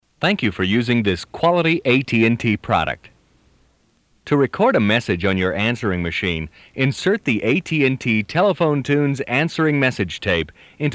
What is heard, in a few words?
Telephone Tones instructions; ATT Telephone Tunes